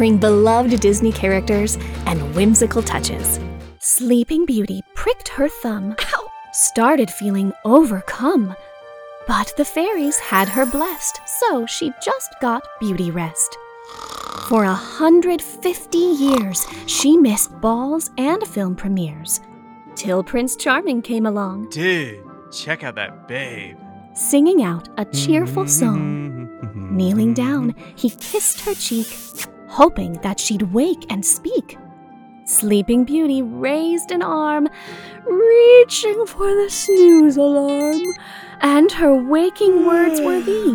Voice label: Kids Media; The joyful, caring, insightful girl-next-door to bring your brand to life.